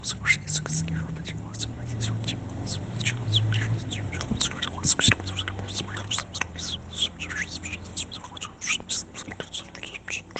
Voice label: mumbling

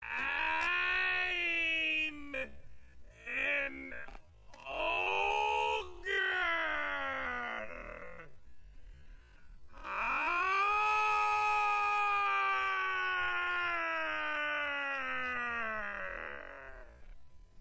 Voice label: im an ogre aaarrrrr slowed

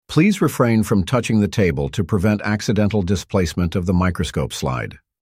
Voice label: do not touch table male

